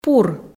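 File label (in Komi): "пур", Komi, female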